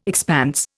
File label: "expanse", Indian